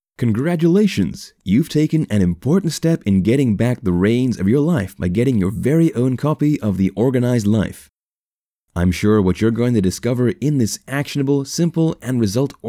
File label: Voiceover; Upsell; the organized life video upgrade